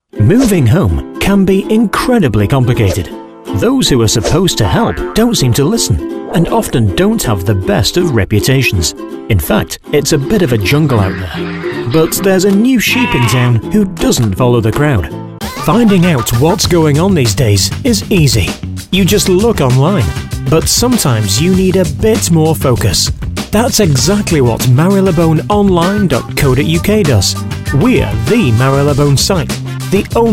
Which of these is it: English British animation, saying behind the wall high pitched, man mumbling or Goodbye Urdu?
English British animation